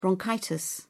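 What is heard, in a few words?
"bronchitis", British English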